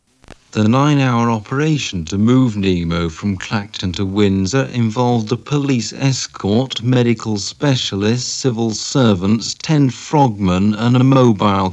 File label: nemo slow